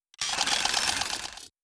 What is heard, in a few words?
robot default greetings; Stellaris; synthetic dawn